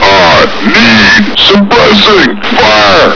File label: backup, voice commands, grunt; svencoop